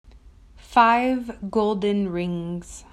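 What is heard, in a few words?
"Five rings", English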